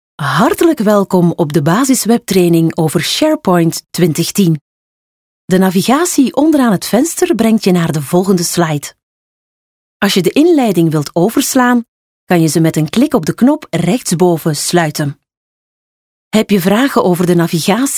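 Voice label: Flemish, female, elearning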